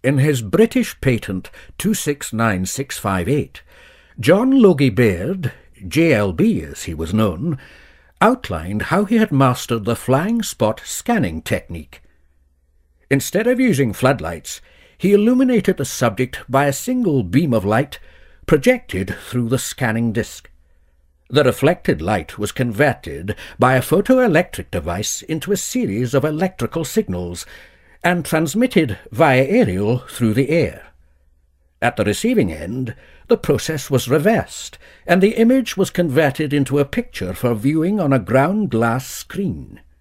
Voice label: Narration Scottish Accent